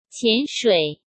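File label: "潜水", Mandarin Chinese; chinese flashcards; hsk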